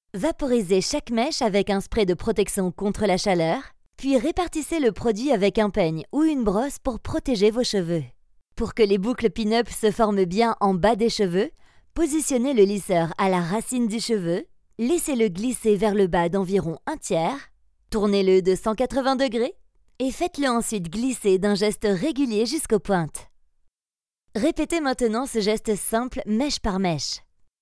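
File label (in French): French, female, narration; voice demos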